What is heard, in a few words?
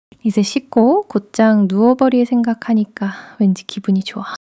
joy